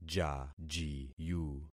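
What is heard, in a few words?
"jajiyu", concatenated syllables; Affixing experiment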